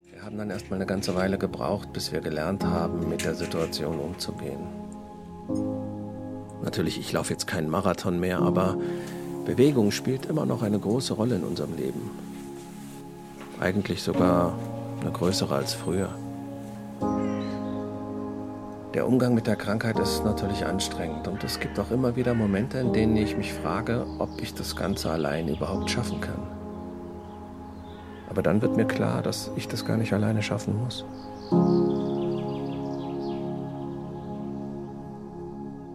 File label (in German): voice over; parkinson